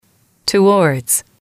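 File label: "towards", English, female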